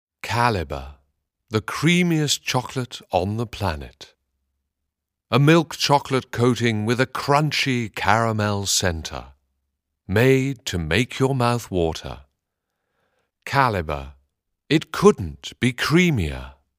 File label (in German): werbung